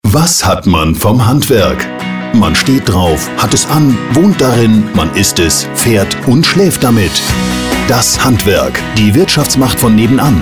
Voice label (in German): Radiospot